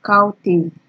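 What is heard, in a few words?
"cowtail", Nigerian Pidgin, female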